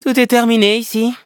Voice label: victory, fr; cookie run

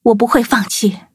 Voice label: level up, zh-hant

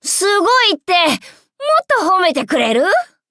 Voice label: levelup ja; cookie run

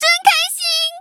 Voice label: level up, zh-hant; cookie run

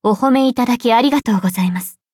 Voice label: levelup ja; Cookie Run

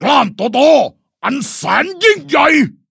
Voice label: level up, th; Cookie Run

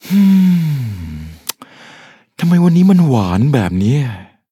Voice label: level up, th; Cookie Run

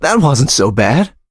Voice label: victory, en

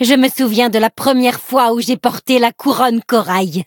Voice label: levelup, fr; cookie run

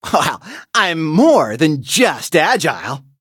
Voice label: victory en; cookie run

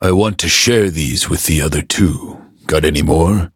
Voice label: level up, en

